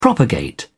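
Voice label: "propagate", British English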